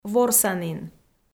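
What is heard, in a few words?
"ворсанін", Komi, female; audio dict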